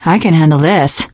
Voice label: take submachine gun, teen girl, survivor voice; source engine; left 4 dead 2